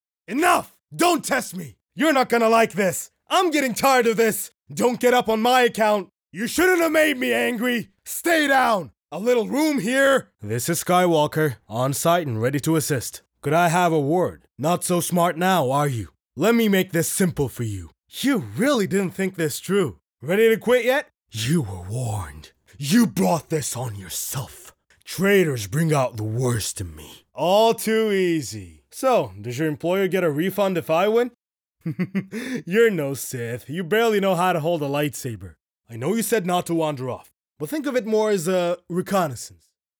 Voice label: va game